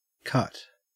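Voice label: "cut", Australian English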